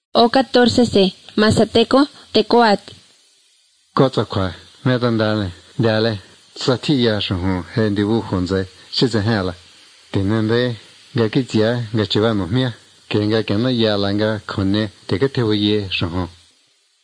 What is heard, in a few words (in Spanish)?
spanish mexico